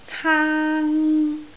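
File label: "caang1", Cantonese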